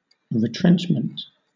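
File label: "retrenchment", British English; pronunciations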